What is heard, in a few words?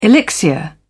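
"elixir", British English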